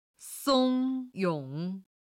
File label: "song1 yong3", Chinese